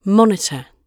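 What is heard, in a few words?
"monitor", British English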